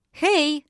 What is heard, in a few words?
bonjour, voix; ru